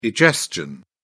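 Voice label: "egestion", British English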